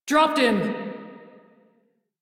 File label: crew enemy defeat, female; Dialog; Warframe; Railjack Crew; Steel Meridian